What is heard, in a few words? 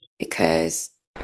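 Voice Acting Spanish Female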